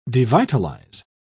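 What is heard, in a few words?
"devitalise", British English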